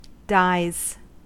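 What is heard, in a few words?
"dies", US English; pronunciations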